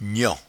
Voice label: "nian", patois jura